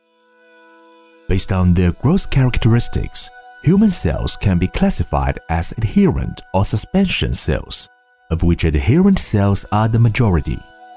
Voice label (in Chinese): 男 英文旁白